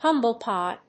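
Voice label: "Humble pie", English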